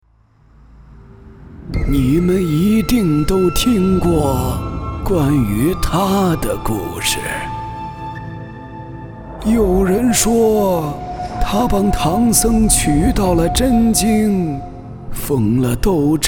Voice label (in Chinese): 角色年迈老头